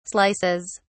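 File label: "slices", British English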